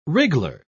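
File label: "wriggler", English, British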